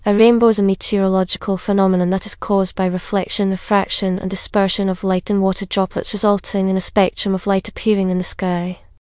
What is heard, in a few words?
speaker, en GB; piper voices; vctk